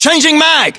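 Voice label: British inform reloading generic, battlechatter, english; localized english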